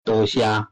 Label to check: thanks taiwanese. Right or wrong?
Right